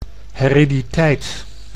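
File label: "heriditeit", Dutch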